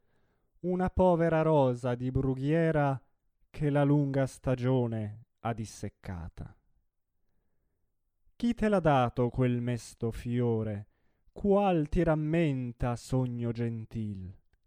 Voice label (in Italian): espressivo